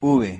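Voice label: "v", Spanish pronunciation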